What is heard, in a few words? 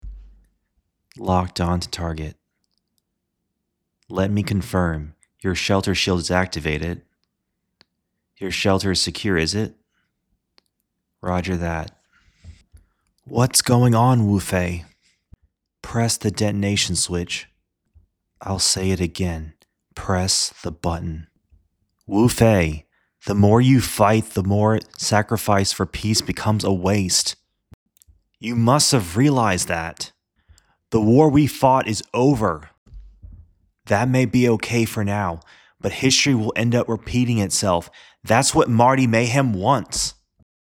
Impressions; Heero Yuy; Gundam Wing